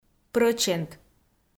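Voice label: "прӧчент", Komi, female; kpv audio dict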